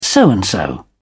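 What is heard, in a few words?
"so and so", British English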